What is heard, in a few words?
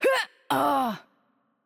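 Hurt Heavy, Romanian; bloom minigame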